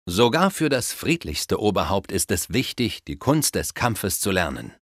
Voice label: Tutorial Combat, Speech German Advisors; Civ5